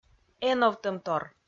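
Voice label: "эновтӧмтор", Komi, female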